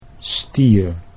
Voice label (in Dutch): "stier", Dutch; 'bull'